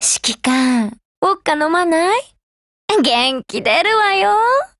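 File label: HELLO Japanese, Voice; GF Alarm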